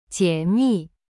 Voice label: "jie3mi4", Chinese; cyberchinese

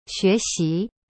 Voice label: "学习" xue xi, chinese

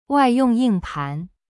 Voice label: "wai4yong4ying4pan2", Chinese; cyberchinese

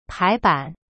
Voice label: "排版", Chinese; pai ban

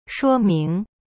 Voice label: "说明 shuo ming", Chinese

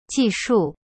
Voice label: "技术", Chinese; ji shu; cyberchinese

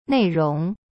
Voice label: "内容" nei rong, Chinese; cyberchinese

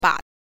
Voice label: "吧", Chinese; cyberchinese

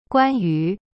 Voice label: "关于 guan yu", Chinese

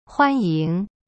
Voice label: "欢迎", Chinese; huan ying

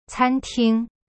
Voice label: "餐厅", Chinese; can ting

